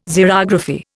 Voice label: "Xerography", Indian